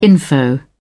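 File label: "info", British English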